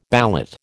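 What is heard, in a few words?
"ballot", American English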